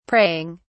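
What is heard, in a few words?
"praying", English, British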